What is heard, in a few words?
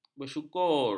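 "bersyukur", Malay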